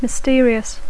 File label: "mysterious", English; Dacco